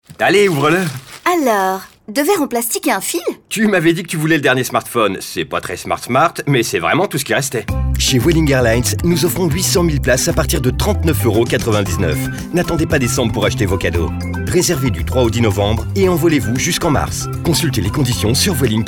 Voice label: male french travel comercial; ideasonora

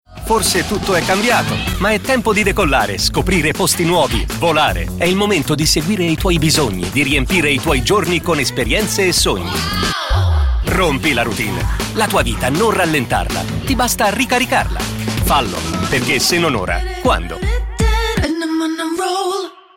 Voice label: male italian comercial; ideasonora

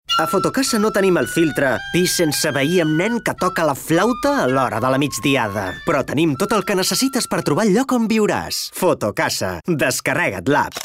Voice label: male catalan rental comercial; ideasonora

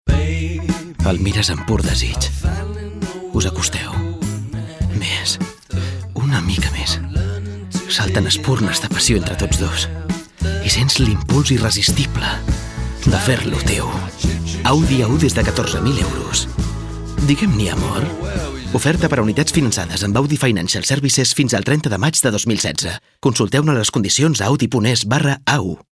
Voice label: male catalan car comercial; ideasonora

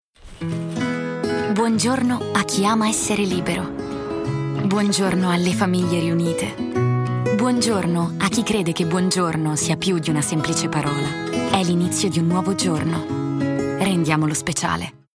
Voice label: female italian comercial

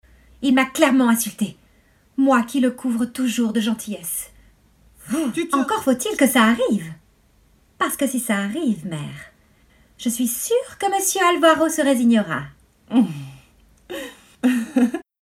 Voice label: female french actor comercial

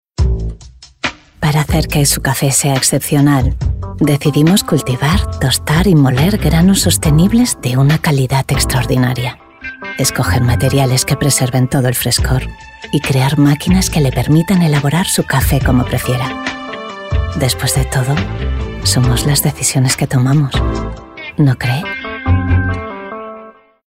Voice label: female spanish coffee comercial

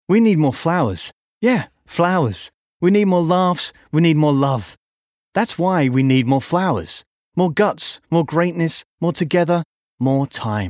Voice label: male american english comercial

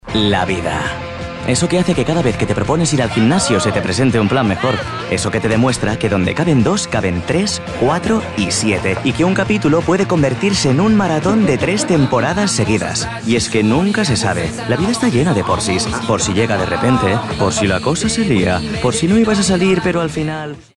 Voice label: male spanish shop comercial; ideasonora

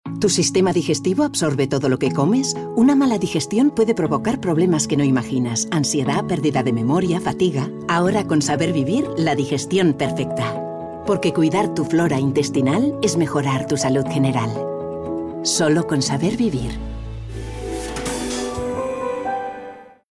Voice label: female spanish book comercial; ideasonora

